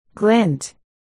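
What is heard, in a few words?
"glint", spoken word, articulation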